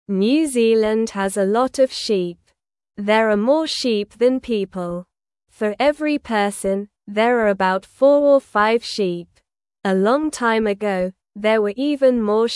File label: English Beginner SLOW Reading; English Newsroom; New Zealands Sheep Numbers Are Getting Smaller